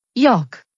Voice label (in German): "Jörg", deutsch, jungennamen